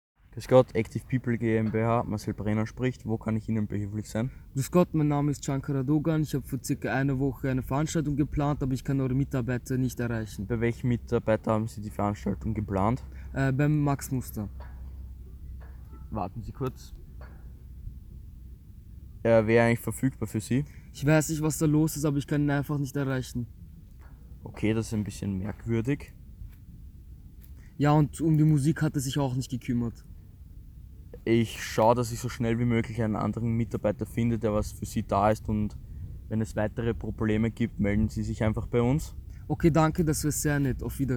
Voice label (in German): Telefonat